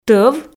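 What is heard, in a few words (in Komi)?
"тӧв", Komi, female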